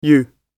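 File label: "you", British English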